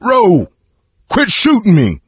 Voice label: friendly fire producer, coach, survivor voice; video game; source engine; left 4 dead 2

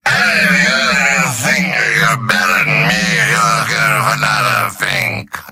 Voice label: gibberish; demoman; video games; source engine; tf; mvm

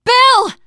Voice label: hunter pounced, teengirl survivor voice; video game; source engine; left4dead2

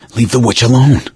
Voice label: witch getting angry; source engine; left 4 dead 2; survivor; gambler

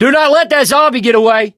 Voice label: fallen survivor, survivor voice

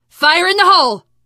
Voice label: grenade, teen girl voice; source engine; left 4 dead 2; survivor

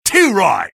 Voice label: sniper taunt rps win

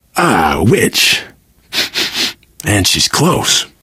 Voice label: heard witch, survivor voice

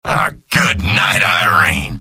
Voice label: jeers; engineer; video games; source engine; tf; mvm

